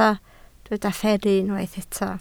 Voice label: "fferru", Welsh